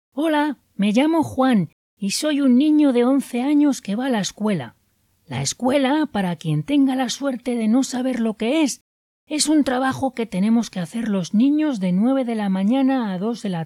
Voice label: boy